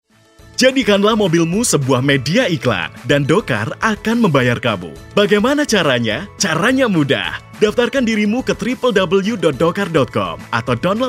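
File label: Indonesian casual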